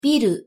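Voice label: pronunciation "ビル", Japanese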